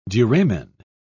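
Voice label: "duramen", British English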